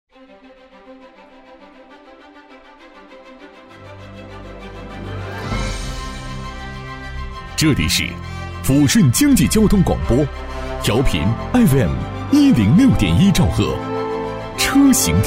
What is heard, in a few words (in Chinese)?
国语男 电台台宣